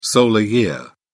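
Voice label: "solar year", English, British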